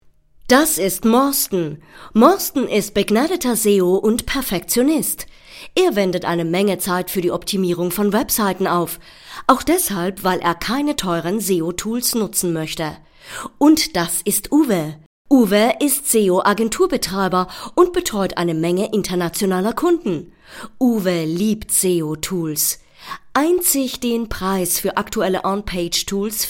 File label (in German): Kommentar Freundlich